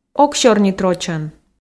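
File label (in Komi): ог сёрнит рочӧн, Komi, female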